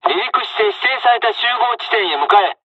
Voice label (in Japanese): takeoff, dialogs japanese; midway